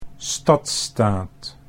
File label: "stadsstaat", Dutch